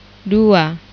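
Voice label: "dua" Indonesian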